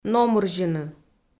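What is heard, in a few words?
"номырзьыны", Komi, female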